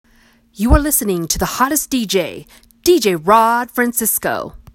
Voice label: radio drop